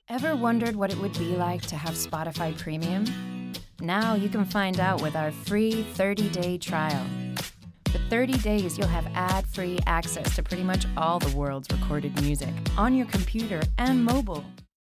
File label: US, Commercial; Spotify